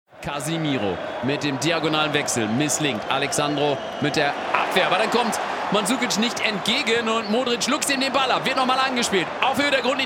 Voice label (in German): Fussballkommentator Real